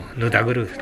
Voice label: "nudaguru", minamiyamagata